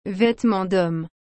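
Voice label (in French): "vetements dhommes", French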